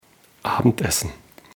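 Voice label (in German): "Abendessen", German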